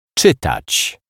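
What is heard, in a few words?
"czytac", Polish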